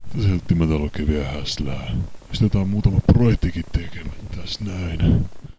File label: library voice